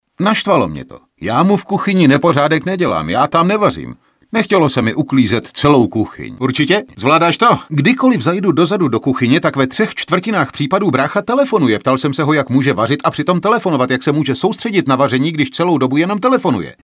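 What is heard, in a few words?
Male Czech drama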